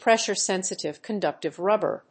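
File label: "pressure-sensitive conductive rubber", English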